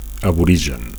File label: "aborigen", Catalan; (m / f) aborigine; aboriginal